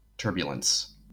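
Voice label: "turbulence" English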